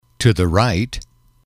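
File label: to the right, male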